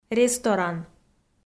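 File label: "ресторан", Komi, female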